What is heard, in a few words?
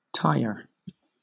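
"tier", British English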